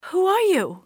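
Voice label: who are you, english female; XBOX; civ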